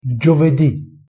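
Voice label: "giovedi", Italian pronunciation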